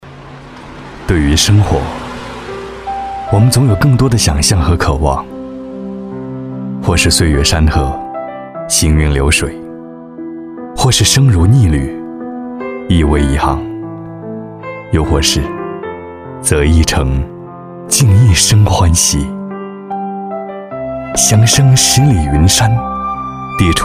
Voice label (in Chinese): 专题讲述感